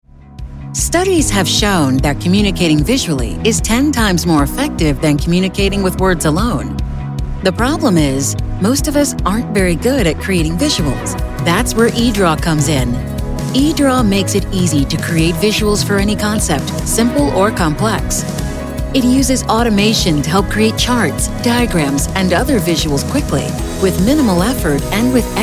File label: Explainer